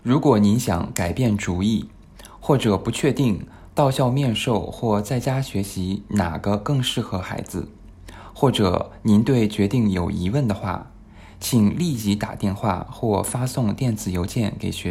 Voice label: Mandarin